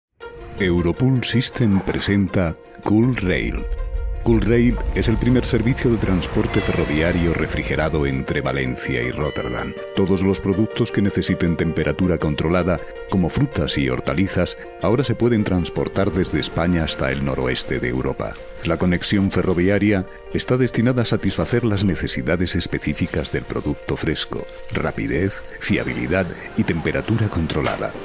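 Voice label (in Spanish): corporativo España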